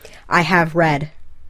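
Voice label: "I have read", English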